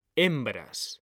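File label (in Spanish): "hembras", Spanish, male; mekanta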